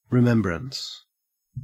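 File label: "remembrance", Australian English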